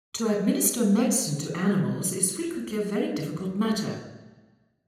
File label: Hall Administer Medicine 0to360deg; eMagLS